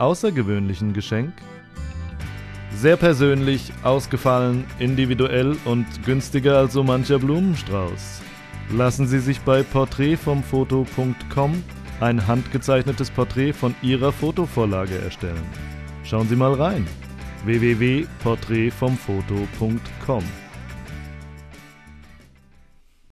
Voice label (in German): Spot Muttertag; Portrait